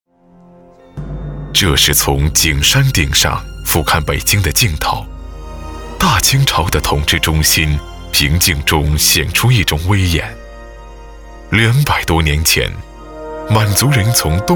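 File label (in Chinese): 男国大音-纪录片; 生正逢时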